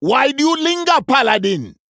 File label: Male Troll; Brukan